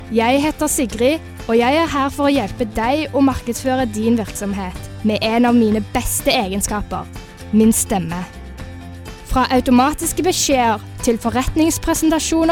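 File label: Norwegian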